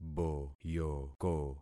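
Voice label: "boyoko", concatenated syllables; Affixing experiment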